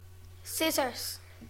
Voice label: "schere", English; classroom